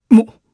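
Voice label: Vox, voices, Japanese; Roman; kingsraid audio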